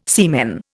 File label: "semen", Indian